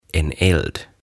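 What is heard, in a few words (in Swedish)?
"en eld", Swedish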